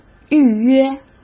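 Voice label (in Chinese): "预约", Mandarin Chinese; hsk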